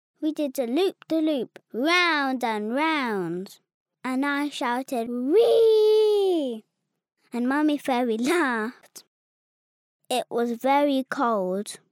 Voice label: girl british voice over character, english british